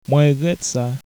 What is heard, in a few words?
sorry haitian creole